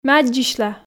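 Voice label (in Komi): ме аддзысьла, Komi, female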